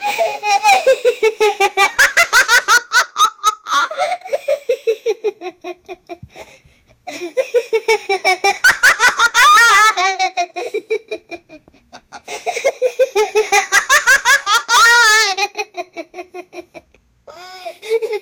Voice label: 9 year old african, father